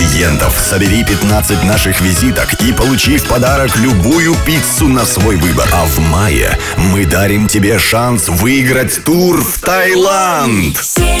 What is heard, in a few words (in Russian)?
РЕКЛАМНЫЙ РОЛИК Такси; 770 Другое Такси